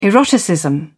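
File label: "eroticism", British English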